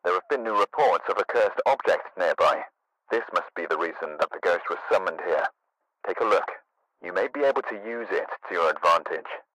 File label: Cursed Voiceover; Phasmophobia